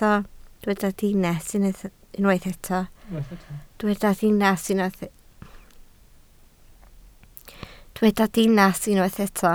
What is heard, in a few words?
"dinas", Welsh